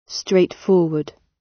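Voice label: "straightforward", British; Study, education, research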